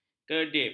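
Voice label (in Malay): "kedip", Malay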